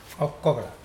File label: hidden, Mokilese